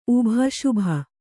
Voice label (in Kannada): "ಶುಭ", Kannada